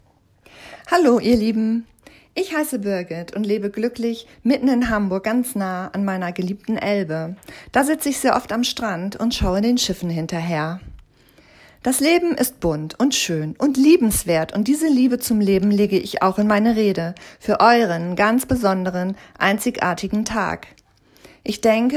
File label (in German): freie rednerin Stimmprobe Trauung